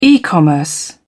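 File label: "e commerce", British English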